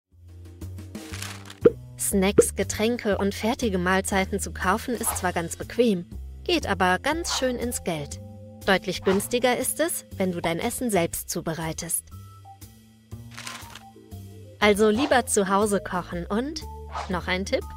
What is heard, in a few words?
Explainer Video; Bundeszentrum fuer Ernaehrung